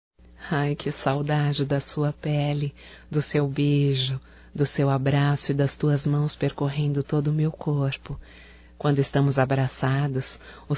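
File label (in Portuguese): SAUDADES ERÓTICA feminino, Telemensagens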